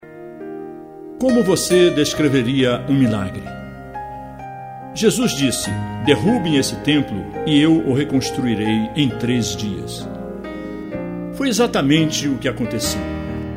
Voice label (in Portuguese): Narracao final